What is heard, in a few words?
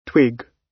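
"twig", British English; Time, history; Thời gian, lịch sử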